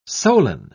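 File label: "solan", English, British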